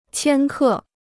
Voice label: "千克", Chinese